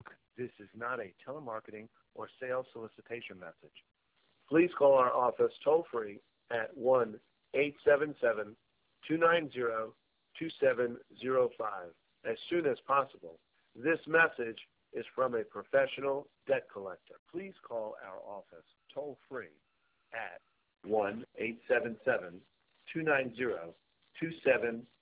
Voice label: voicemail